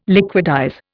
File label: "liquidize", Indian